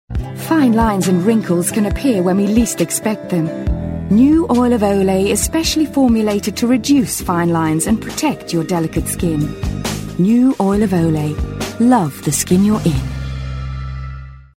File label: Commercial; Olay; Vocal Point